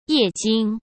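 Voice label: "液晶", Mandarin Chinese; hsk; chinese flashcards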